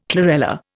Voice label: "chlorella", Indian